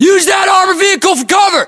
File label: order cover armored vehicle, US; localized english; battlechatter